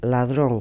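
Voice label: "ladron", Spanish, Santiago de Compostela; Galicien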